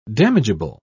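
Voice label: "damageable", British English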